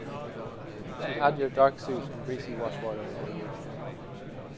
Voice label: mix Babble